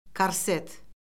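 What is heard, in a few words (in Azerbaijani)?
"korset", Azerbaijani; Orfoqrafiya Lüğəti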